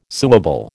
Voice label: "suable", American